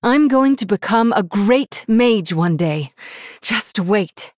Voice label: Female Human Threaten

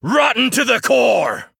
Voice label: Male Human Attack

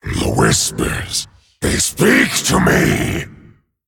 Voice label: Male Dragon Start

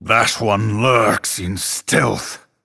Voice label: Male Night Elf ERROR STEALTH

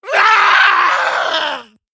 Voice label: Female Saurok Death

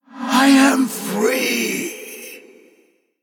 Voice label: Male Human Ghost Death

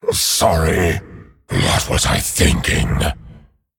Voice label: Male Dragon Sorry

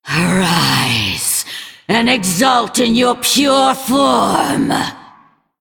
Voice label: Female Lich Play

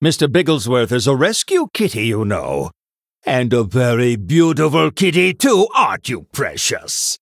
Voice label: Male Human Flavor

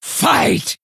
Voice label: Female Orc Attack

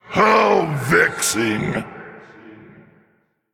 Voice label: Male Elemental Emote ERROR GENERIC

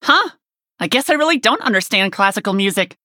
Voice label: Warrior Nonbinary Night Elf; Mission8 Start

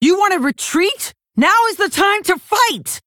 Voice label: Female Orc Victory Post Explosion

